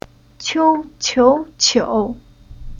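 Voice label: "Qiu", Chinese syllable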